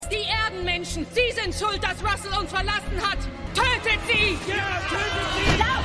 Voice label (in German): Wuetende Frau, Stimmproben; "Was du mitnimmst" ("What You Take with You"); The 100